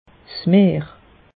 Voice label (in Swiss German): "meer", alsace; meteo